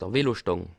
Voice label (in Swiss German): "velostang", Alsace